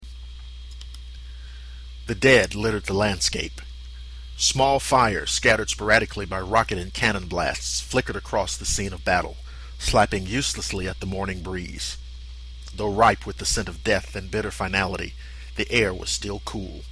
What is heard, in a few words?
Narration, voice acting; The Alamo